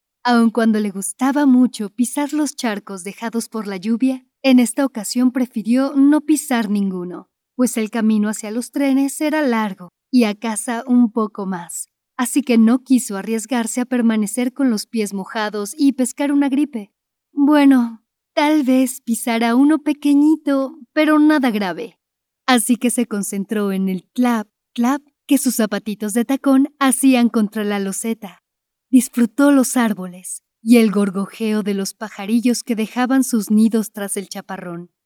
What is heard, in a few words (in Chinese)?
西班牙语女 外语 小语种